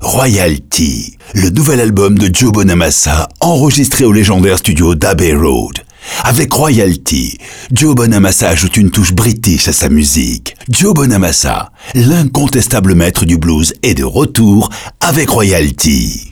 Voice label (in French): Voix HOM Rock Grave